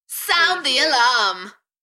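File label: UK female, Enthusiastic, DJ vocals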